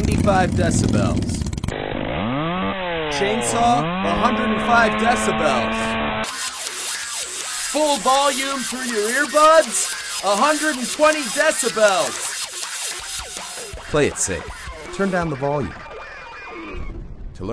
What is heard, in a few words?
tattoo psa radio